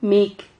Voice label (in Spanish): "mic", Silabas